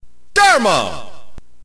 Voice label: narrator